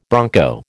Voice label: "bronco", American